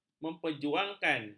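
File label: "memperjuangkan", Malay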